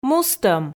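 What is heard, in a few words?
"мустӧм", Komi, female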